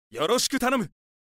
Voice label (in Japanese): ピッチシフターで重病者を使用した場合